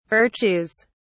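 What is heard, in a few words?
"virtues", English, British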